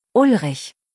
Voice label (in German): "Ulrich", deutsch, jungennamen